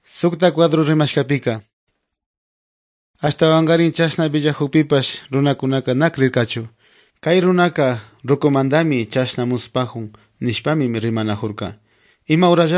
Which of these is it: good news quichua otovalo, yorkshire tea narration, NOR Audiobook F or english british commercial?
good news quichua otovalo